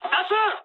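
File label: bailout mood high, speech japanese; War Thunder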